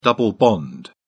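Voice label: "double bond", British English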